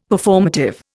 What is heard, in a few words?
"Performative", Indian; Dictionary